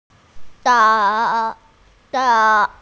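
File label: "zou3", Mandarin Chinese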